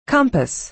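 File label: "compass", American English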